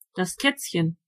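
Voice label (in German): "kitten", German; gcompris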